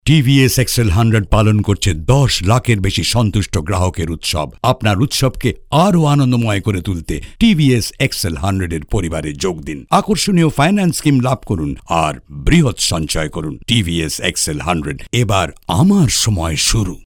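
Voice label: TVC, Bengali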